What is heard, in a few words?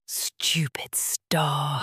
stupid star whisper